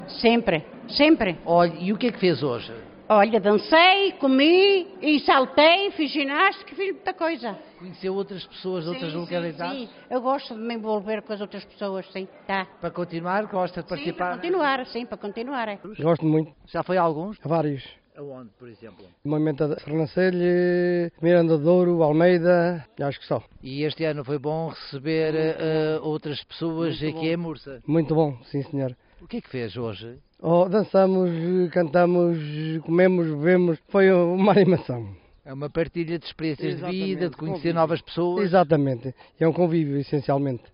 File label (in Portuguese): vox pop